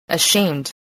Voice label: "ashamed", English